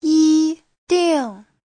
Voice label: "yi1 ding4", Chinese